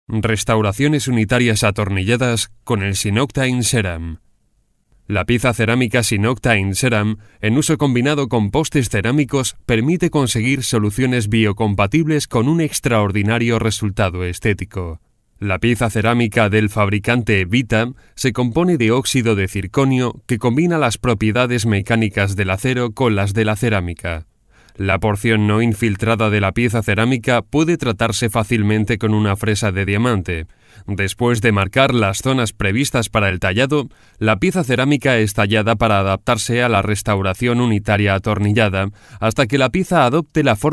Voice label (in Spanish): videos industriales locucion